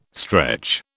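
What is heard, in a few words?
"stretch", British English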